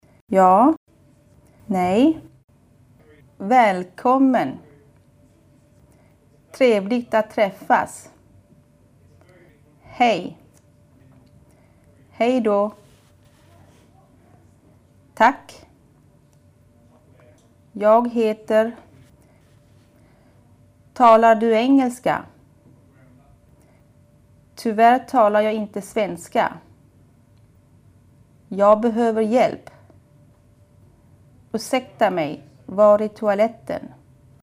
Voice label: Swedish

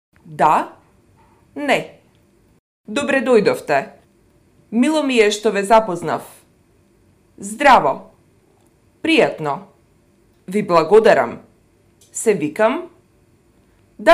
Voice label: Macedonian; Macedonian (FYROM)

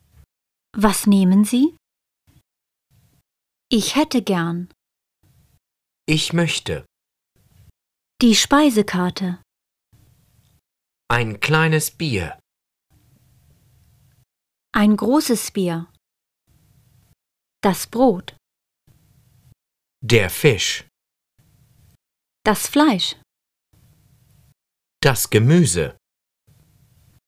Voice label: German

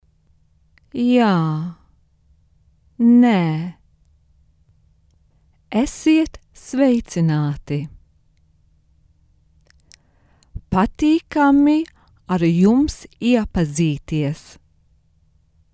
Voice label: Latvian

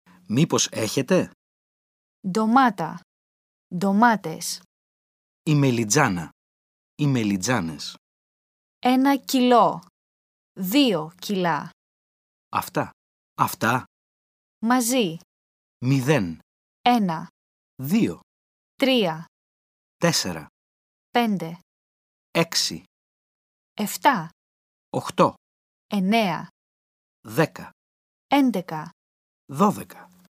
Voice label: Greek

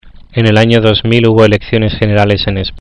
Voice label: Spanish

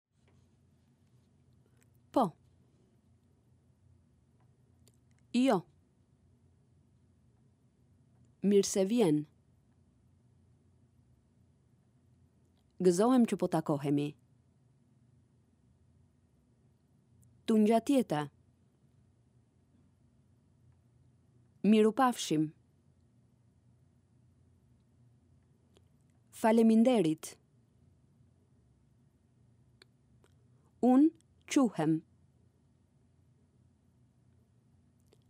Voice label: Albanian, Language Being Spoken

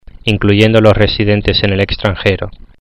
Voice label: Spanish

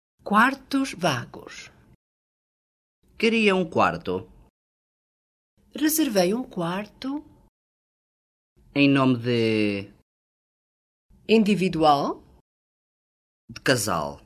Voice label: Portugese

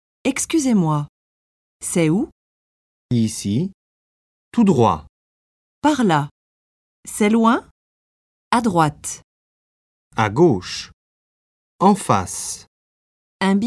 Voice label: French

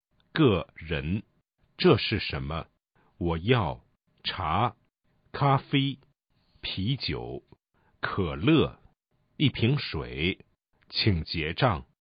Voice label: Chinese

